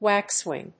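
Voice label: "waxwing", English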